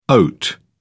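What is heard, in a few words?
"oat", English, British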